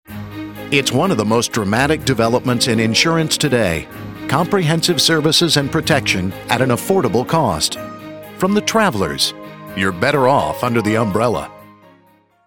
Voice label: TRAVEL -- Corporate, Traditional announcer; voiceover; travelers

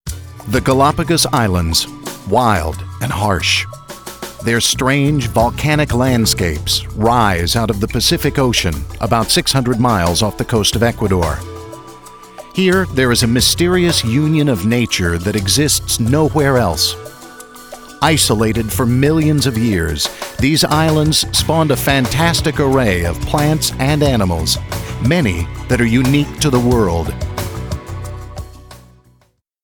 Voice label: TRAVEL/NATURE -- Documentary, Mysterious; galapagos

